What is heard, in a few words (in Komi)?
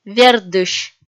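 "вердысь", Komi, female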